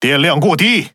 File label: Speech Alarms Sub Battery Low, ZH CN